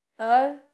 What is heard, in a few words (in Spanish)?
Voice Acting es F0